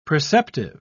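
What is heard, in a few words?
"preceptive", British English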